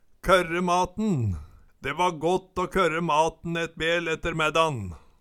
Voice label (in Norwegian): korre maten, Numedalsmål